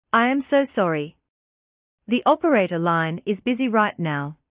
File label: female sorry operator line busy